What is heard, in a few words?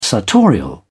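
"sartorial", British English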